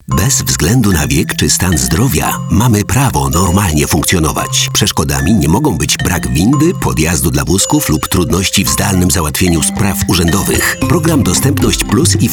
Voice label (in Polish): spot radiowy; Dostepnosc Plus